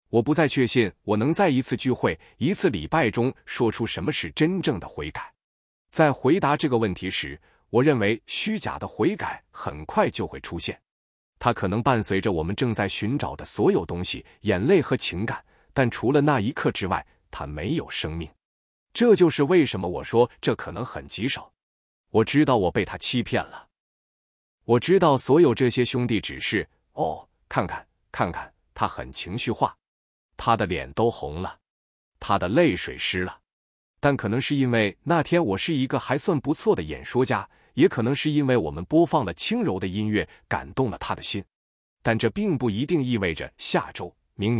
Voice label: chinese zh-CN; YunjianNeural